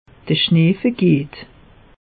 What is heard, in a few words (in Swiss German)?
"schnee vergeht", alsace; meteo